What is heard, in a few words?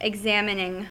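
"examining", American English; pronunciations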